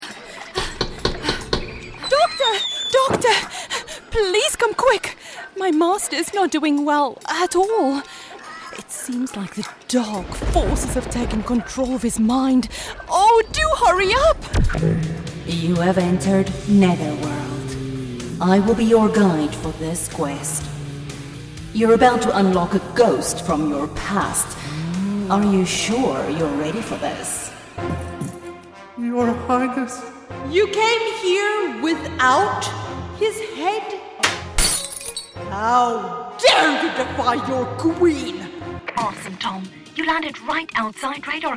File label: English South African cartoon animation